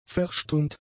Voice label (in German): "verstummt", German; adjectives